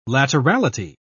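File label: "laterality", British English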